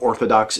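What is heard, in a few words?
"orthodox", American English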